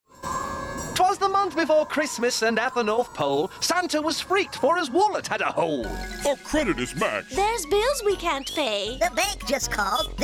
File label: Xmas Spot